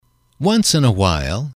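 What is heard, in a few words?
"once in a while", English, male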